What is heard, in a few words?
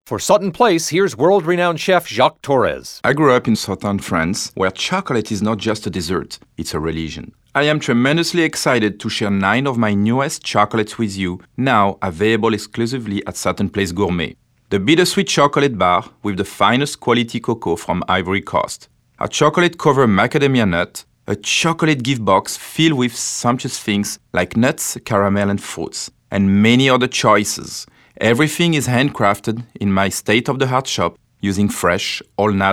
Radio Spot; Jacques Torres